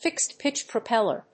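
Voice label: "fixed-pitch propeller", English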